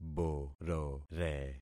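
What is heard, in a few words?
"borore", concatenated syllables; Affixing experiment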